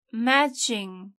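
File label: "matching", English; properties of objects new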